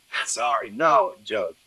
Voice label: Voice Acting, es, M

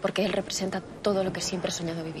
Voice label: Voice Acting es F

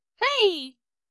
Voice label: VoiceActing es

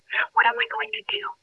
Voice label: Voice Acting, es, F0

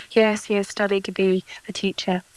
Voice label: Voice Acting es

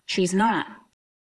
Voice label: Voice Acting es

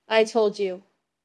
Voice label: Voice Acting es

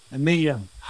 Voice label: Voice Acting, es

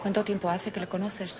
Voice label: Voice Acting es F

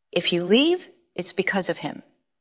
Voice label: VoiceActing es F

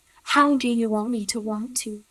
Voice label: Voice Acting, es, F0

